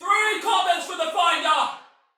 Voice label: Sight Lost Shout, Female, Soldier; Dialog; Barks; Battle; Sinecure